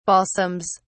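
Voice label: "balsams", British English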